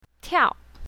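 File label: "tiao4", pinyin, south, female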